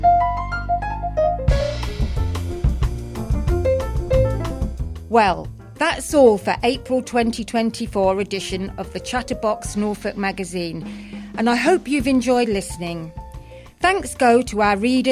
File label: Closing announcement